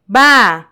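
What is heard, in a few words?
"baa", Swahili, Kenya; rzeczownik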